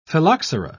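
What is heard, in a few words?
"phylloxera", British English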